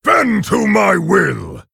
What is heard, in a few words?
Orc Emote Attack; Nerzhul